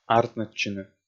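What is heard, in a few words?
"артмӧдчыны", Komi-Zyrian, male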